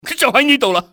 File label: right here, cantonese male; XBOX; enemy